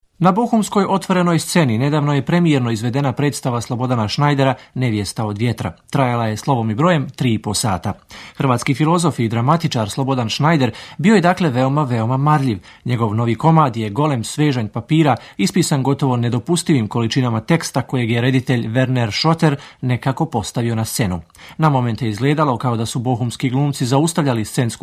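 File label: Bosnia and Herzegovina, male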